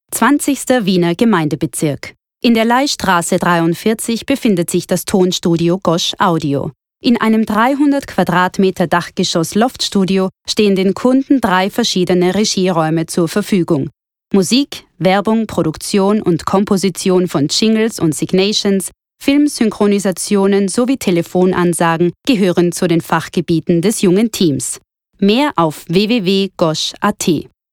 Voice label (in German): sachlich